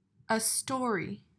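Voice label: "a story", English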